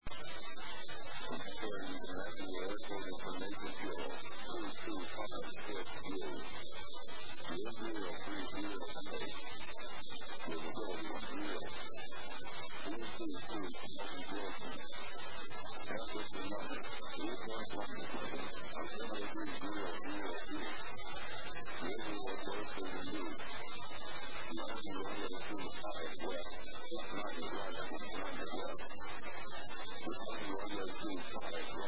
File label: ATIS, USA; LAS